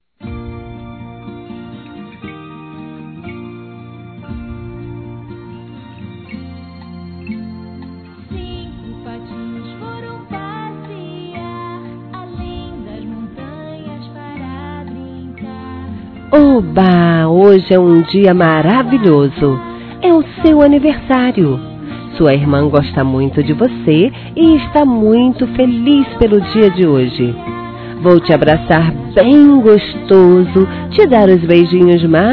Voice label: Irmão (a) Criança Feminino; Aniversário Infantil; Telemensagens

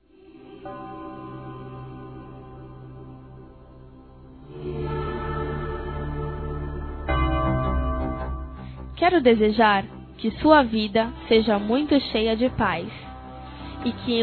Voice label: ANIV. NEUTRO EVANG. ADOLESC F; Aniversários; Aniversários diversos; fem

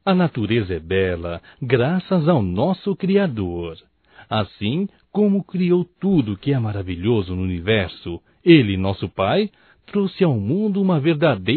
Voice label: AGRADECIMENTO ROMANTICO, Só Voz, masc; Telemensagens; AGRADECIMENTOS

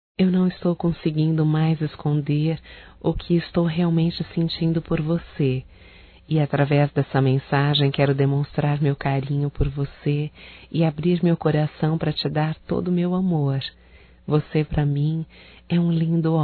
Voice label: ROMANTICA(F), Românticas, fem

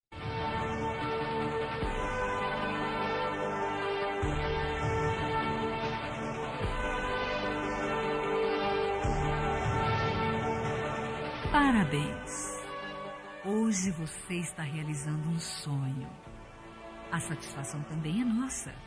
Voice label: PARABENS PELA FORMATURA FEM; Telemensagens; Formaturas; Telemensagens 2017

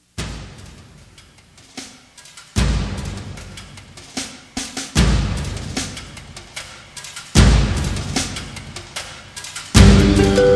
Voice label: POLITICO PEDINDO VOTO MASC; Telemensagens; Pedidos

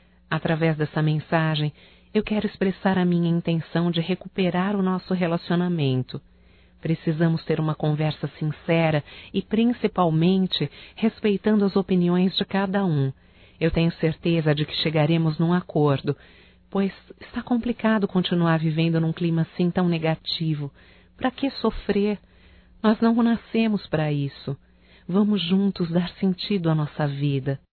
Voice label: INDIFERENÇA(F), Românticas fem

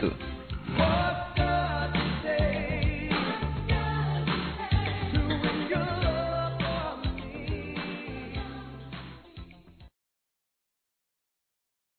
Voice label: DUPLICAÇÃO DE CLIENTE, fem, Telemensagens Empresarial; Telemensagens 2017

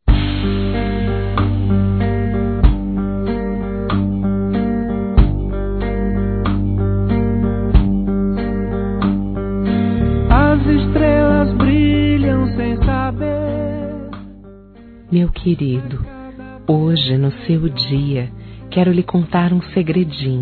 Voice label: ANIVERSARIO ROMANTICO F; Aniversários; fem

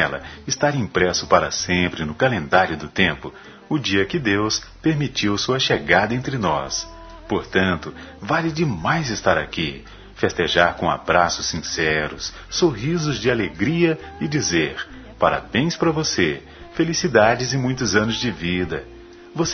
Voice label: Aniversário Neutro M; Telemensagens 2017; Aniversários; Aniversários diversos

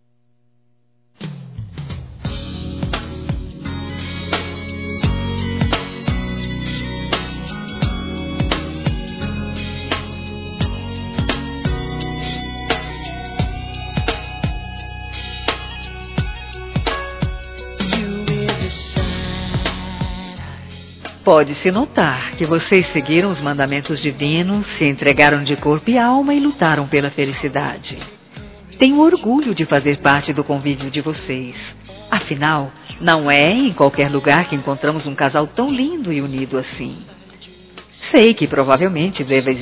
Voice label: Aniversário Casamento Geral Feminino; Aniversários

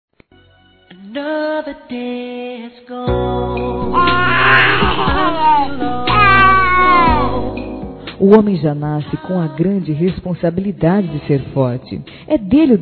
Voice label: Parabéns Papai Feminino; Telemensagens; Felicitações; Telemensagens 2017

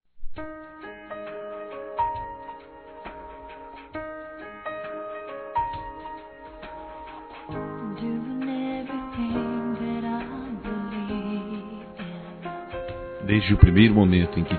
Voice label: DECLAR. DE AMOR –M; Telemensagens 2017

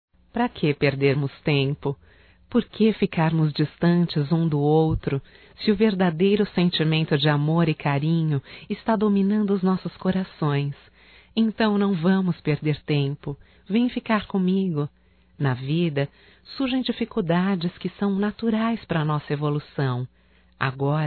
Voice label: ROMANTICA EU TE AMO F; Declaração de amor; fem; Telemensagens 2017

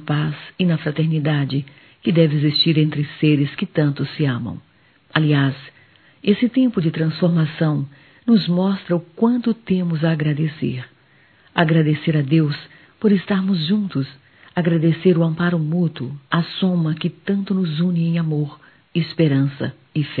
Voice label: Páscoa Romantica, fem; Telemensagens 2017

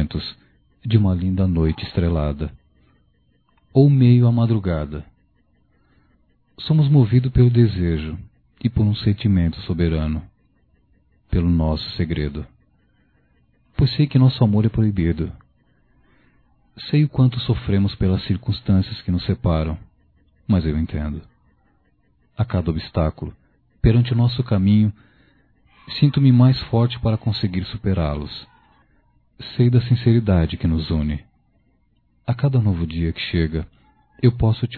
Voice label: Solidão Romantica Masculino, Só Voz; Telemensagens; Telemensagens 2017

